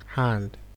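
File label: "half pas one", Nigerian Pidgin, male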